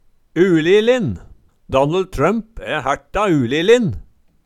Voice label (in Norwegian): ulilin, Numedalsmål